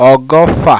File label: "Ogofa", Yoruba